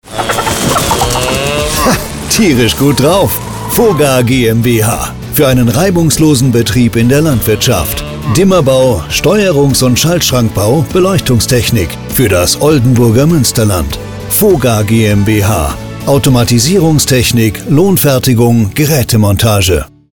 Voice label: radio spot